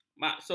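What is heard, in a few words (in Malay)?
"maksud", Malay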